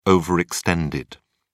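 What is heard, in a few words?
"overextended", British English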